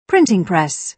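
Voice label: "printing press", British English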